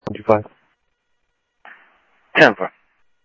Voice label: scanner audio, Sheriff

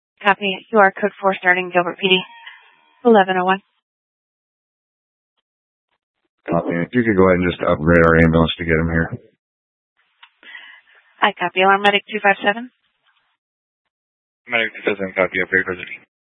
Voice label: Fire Departments, scanner

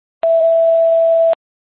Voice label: FD Dpts VHF 156.240 Patch

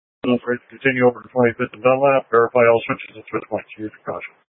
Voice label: Light Rail Emergency, scanner

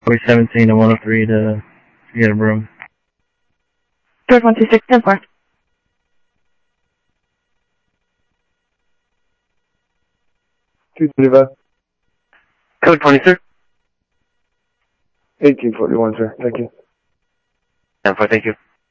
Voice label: Sheriff, scanner audio

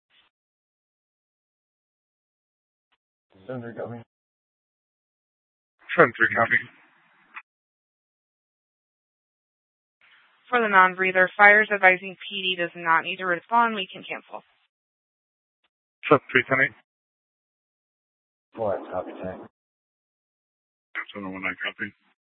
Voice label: Dispatch, scanner

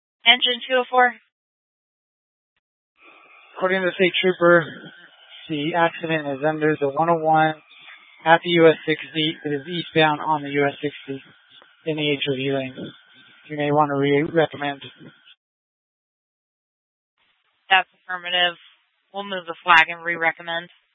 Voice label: Fire Departments Mesa Simulcast; TOPAZ

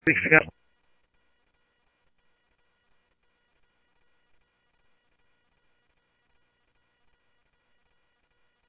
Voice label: Simulcast Police Dep, scanner audio trunk; Mesa Simulcast; MESA PD 1 Fiesta; MesaPoliceDep

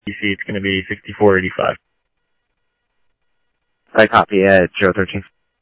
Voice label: Phoenix PD, scanner audio; ThompsonPeakHigh

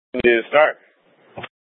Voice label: Light Rail Ops, scanner; Light Rail Ops 1

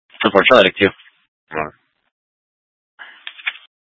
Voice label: Phoenix Police Dispatch; Regional Wireless Cooperative (RWC) - Thompson Peak High; 200 Black Mountain Precinct

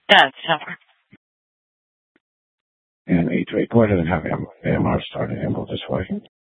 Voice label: Sheriff, scanner P25

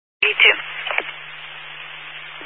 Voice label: Highway Patrol, Phoenix Metro West Area, scanner

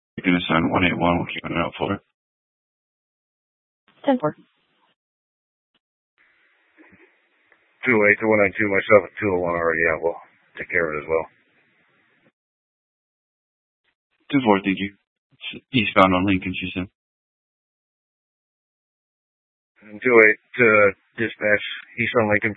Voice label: Dispatch, scanner

